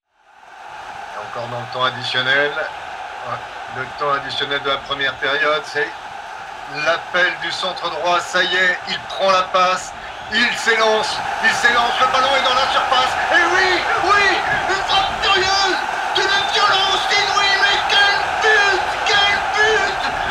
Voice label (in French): personnage commentateur foot